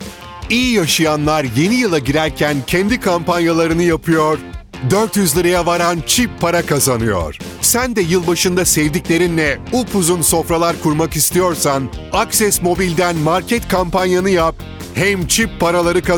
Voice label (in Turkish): kanpanya tempolu, seslendirme